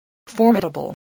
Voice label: "formidable", English voice, spelling

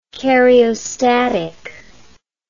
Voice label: "cariostatic", English

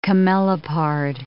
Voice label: "camelopard", English

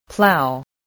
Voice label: "plow", English

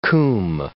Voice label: "coombe", English

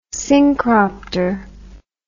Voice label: "synchropter", English word

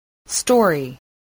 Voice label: "story", spelling

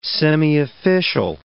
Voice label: "semiofficial", English

